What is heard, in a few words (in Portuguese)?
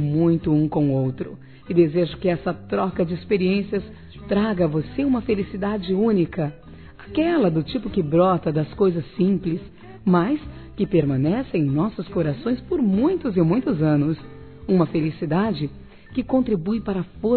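parabéns pelo namoro, feminino; Telemensagens; Parabenização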